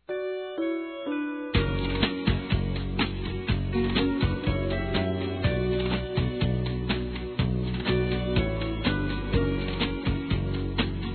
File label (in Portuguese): Aniversário Avô Feminino